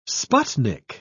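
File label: "sputnik", English, British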